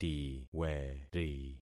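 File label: "tiweri", concatenated syllables; Affixing experiment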